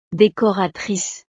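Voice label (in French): "decoratrice", French; profesiones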